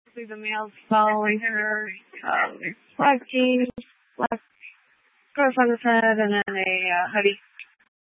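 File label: Tempe Police Department, scanner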